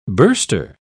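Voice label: "burster", British English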